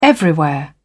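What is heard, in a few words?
"everywhere", British English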